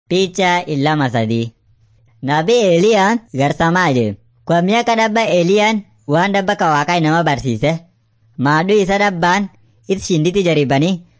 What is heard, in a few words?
afan munyoyaya; servants of god